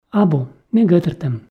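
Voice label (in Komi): "абу ме гӧтыртӧм", female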